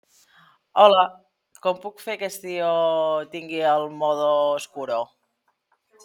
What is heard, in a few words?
voice message